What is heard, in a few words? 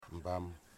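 "thank you", Wamut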